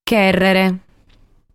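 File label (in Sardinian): "Chèrrere", Sardinian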